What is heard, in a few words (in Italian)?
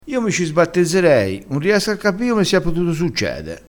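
"sbattezzassi", dialettale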